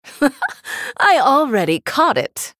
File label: female human start